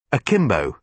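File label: "akimbo", British English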